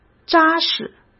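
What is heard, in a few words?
"扎实", Mandarin Chinese; hsk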